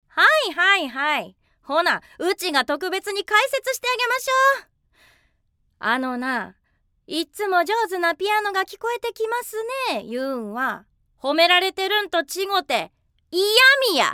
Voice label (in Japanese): マスコットキャラ(京都弁)